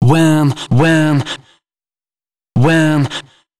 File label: male d#130, spoken hooks